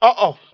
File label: "uh oh", Voice